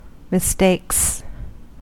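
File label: "mistakes", American English; pronunciations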